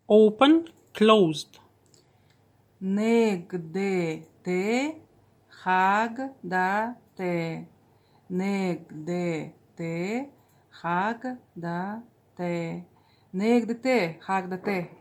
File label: open closed, buryat